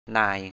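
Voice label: "na:y" Thai, 'Mr.; master, boss'; นาย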